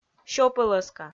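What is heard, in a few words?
"сёпӧлӧска", Komi, female; kpv audio dict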